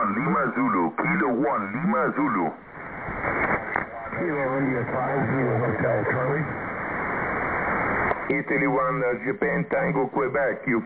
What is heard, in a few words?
7158.0kHz, ssb contest; wpx contest ssb 2023